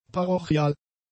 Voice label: "parochial", German; adjectives